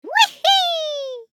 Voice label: cute character wee